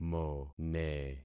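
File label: "mone", concatenated syllables; Affixing experiment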